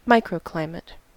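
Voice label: "microclimate", US English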